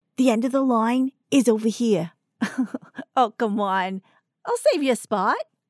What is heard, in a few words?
New Yorker Friendly Understanding